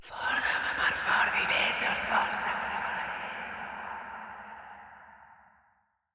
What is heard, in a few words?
Bb Whis Circade en, Dialog; Encounters